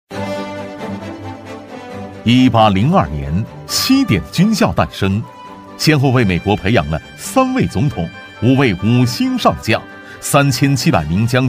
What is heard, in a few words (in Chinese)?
男 学校宣传; 企业商学院; 稳重磁性; 成熟厚重